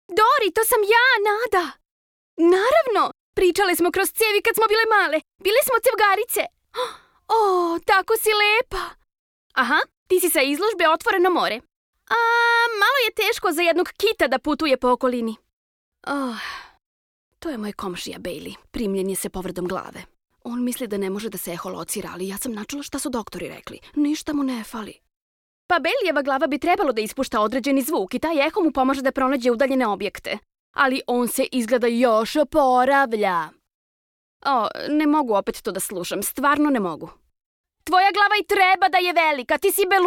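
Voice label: Serbian female character; voice demos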